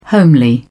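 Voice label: "homely", British English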